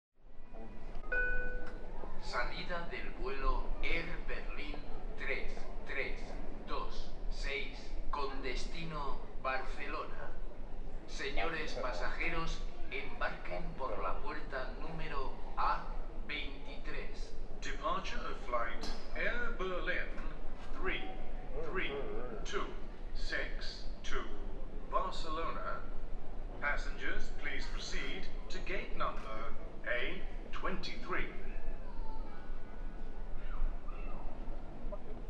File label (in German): Flughafen Ansagen Mallorca